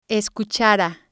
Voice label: "escuchara", Spanish